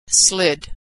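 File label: "slid", American English; verb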